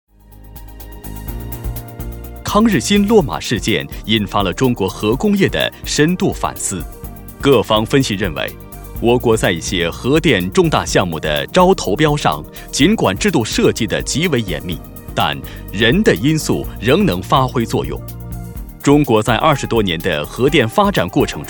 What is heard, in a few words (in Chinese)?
男 节目 核电, 国语男声配音; 大气专题; 郎咸平说节目